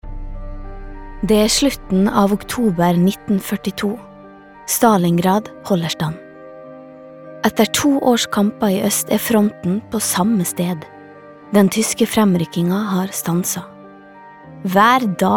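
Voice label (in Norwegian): no dokumentar, Norwegian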